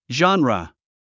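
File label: "genre", English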